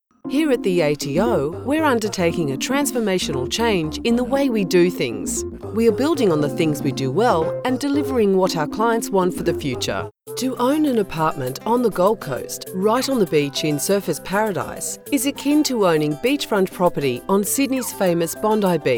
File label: corporate compilation